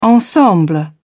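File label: "ensemble", British English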